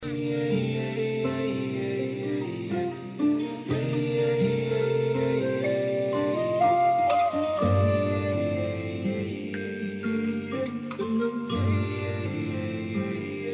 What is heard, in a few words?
rap